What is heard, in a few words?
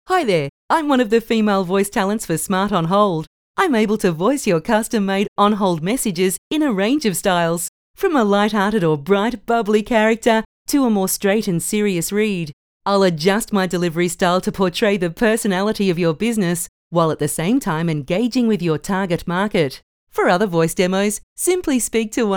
female